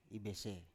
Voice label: "ibese", Biak